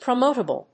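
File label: "promotable", English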